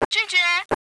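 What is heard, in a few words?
negative, radio, Chinese woman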